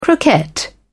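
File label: "croquette", British English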